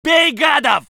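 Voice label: voice soviet infantry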